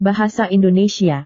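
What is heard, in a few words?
"bahasa indonesia", Indonesian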